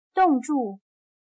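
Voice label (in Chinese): "冻住" Mandarin Chinese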